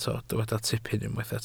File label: "tipyn", Welsh